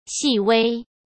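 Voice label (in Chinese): "细微", Mandarin Chinese; hsk; chinese-flashcards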